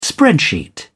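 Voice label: "spreadsheet", English, British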